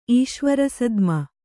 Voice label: "ಈಶ್ವರಸದ್ಮ", kannada